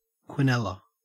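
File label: "quinella", Australian English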